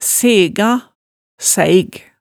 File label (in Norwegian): "sega", hemsedalsmaalet